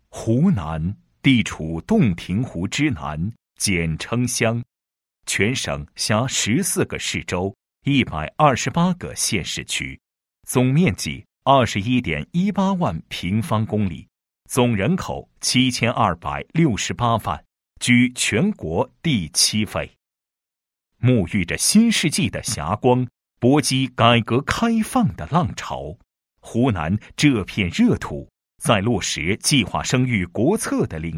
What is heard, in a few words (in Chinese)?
男 工作总结; 药具工作总结